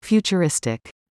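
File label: "futuristic", English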